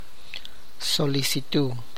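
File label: Tlacochahuaya Zapotec; application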